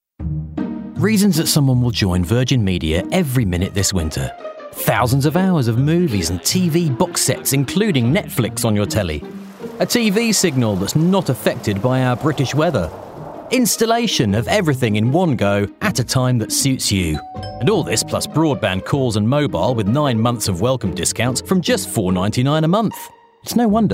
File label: Commercial; Virgin Media